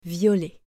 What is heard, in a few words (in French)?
"violet" French